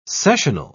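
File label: "sessional", British English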